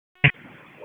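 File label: Public Safety Tactical, p25; wake p25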